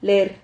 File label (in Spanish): "ler", Silabas